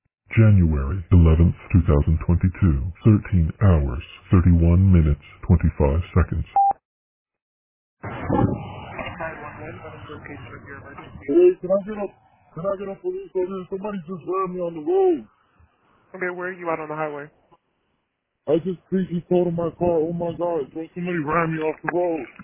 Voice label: 911 call; RALEIGH